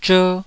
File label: "zher2", Taiwanese syllable